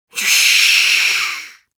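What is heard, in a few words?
Demoman jeers, russian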